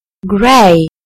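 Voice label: "grey", British English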